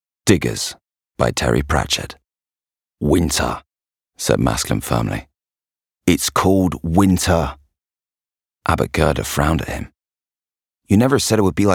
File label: Audiobook; Diggers